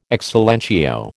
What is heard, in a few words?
"ex silentio", American